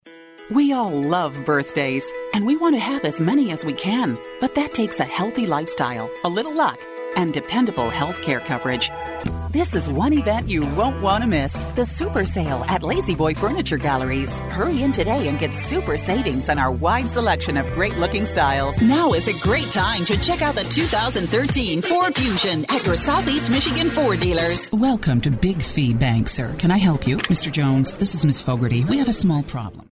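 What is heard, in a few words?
English, American, female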